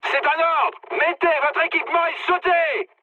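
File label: French bailout mood high; War Thunder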